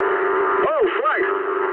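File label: damaged anti-aircraft mood medium, speech English; War Thunder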